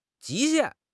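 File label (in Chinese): "极限", Chinese; HSK6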